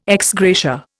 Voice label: "ex gratia", Indian